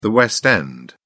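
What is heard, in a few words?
"west end", British English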